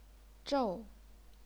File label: "zhou4", chinese pinyin